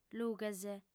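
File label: "lughes", female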